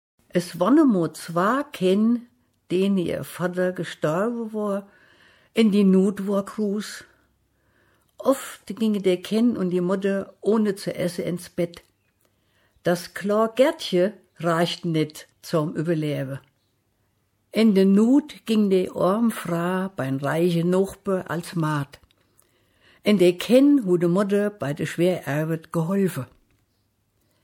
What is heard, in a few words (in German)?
Schatzsucher, Mundart Hessen